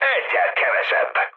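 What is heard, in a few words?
player killed enemy, mood med, Hungarian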